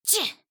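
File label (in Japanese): 「ちっ」, 女の子 オラオラ系少女 ダメージ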